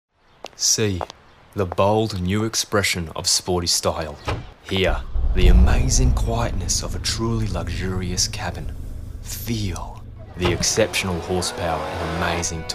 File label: Voice Over